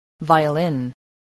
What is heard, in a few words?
"violin", English